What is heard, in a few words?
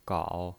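"gao", Mandarin Chinese, third tone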